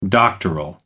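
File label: "doctoral", British English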